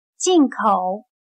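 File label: "进口", Chinese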